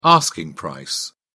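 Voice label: "asking price", English, British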